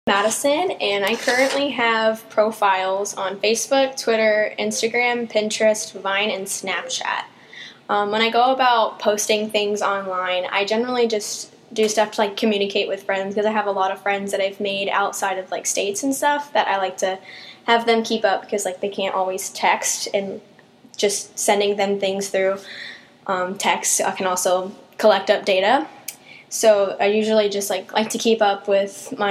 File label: interview